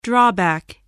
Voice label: "drawback", spelling

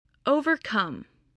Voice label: "overcome", English voice

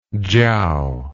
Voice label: "chiao", English

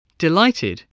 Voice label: "delighted", English

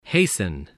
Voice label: "hasten", English, spelling

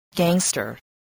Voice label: "gangster", voice, English

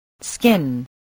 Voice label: "skin", voice, spelling

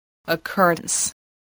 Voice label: "occurrence", voice, spelling

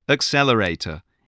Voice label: "accelerator", English voice

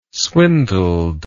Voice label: "swindled", English word

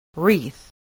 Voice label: "wreath", spelling

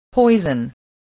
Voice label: "poison", English

